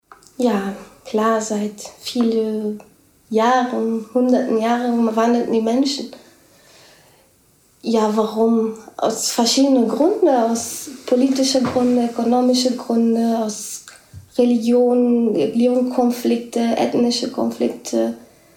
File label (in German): portogiesisch brasilien